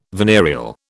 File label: "venereal", British